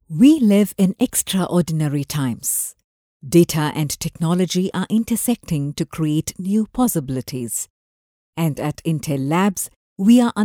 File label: ENG Corp. Video